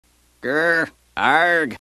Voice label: grr arrgh; buffy